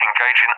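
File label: "engaging", English, UK; DCS; Allied Flight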